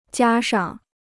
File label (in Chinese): "加上", Chinese